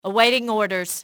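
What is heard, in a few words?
awaiting orders, english female; XBOX; enemy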